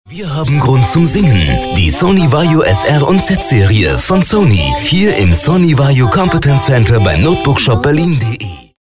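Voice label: German, male, medium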